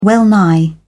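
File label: "well-nigh", British English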